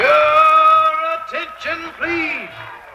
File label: your attention please, male; Voice; introductions